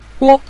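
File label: "guo", Mandarin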